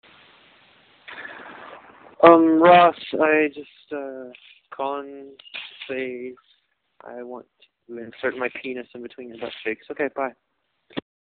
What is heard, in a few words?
voicemail hello